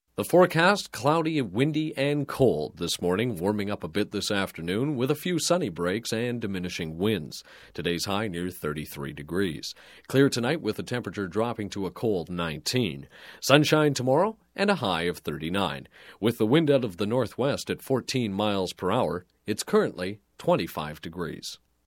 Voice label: Broadcast, Weather Generic Winter Forecast, Dry; Radio; Sound Ideas; Series 2000

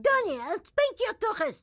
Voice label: grandma chopper annoyed; Blind Drive

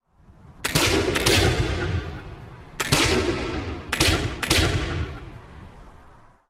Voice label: Cowboy Cheers; Pitch Black Audio Pong

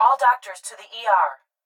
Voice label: Hospital Pa Voice; Blastwave

